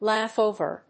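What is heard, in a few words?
"laugh over", English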